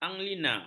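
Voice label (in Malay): "anilina", Malay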